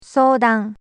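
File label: "soudan", Japanese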